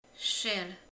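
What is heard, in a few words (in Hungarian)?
"száz", Hungarian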